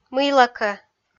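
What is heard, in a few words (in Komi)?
"мыйла кӧ", Komi, female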